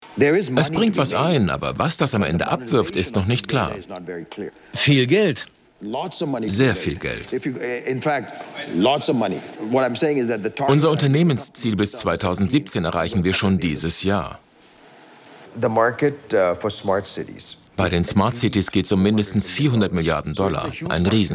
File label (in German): Doku VO